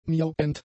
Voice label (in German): "miauend", German; adjectives